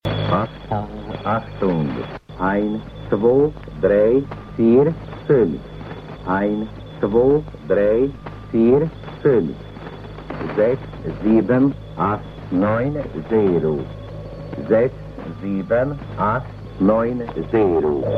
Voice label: voice comparison, numbers stations